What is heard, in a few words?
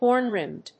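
"horn-rimmed", English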